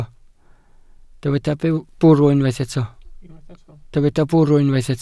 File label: "bwrw", Welsh